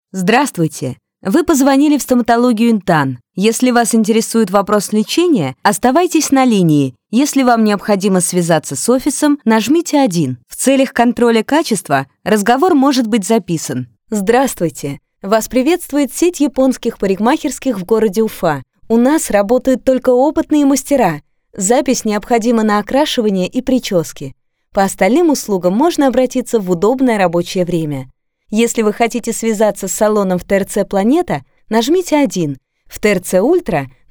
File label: IVR